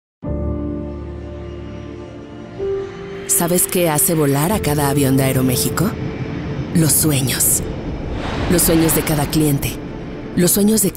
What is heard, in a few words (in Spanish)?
TONO CALIDO INSTITUCIONAL LOCUTORA